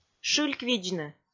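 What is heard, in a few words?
"шыльквидзны", Komi, female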